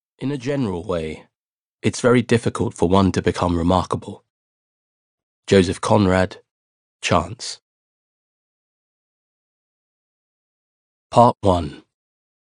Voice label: Audiobook; Theft